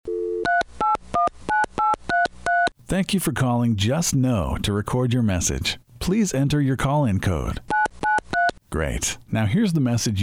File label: Phone Hold IVR Male